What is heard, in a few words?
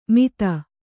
"ಮಿಥ", Kannada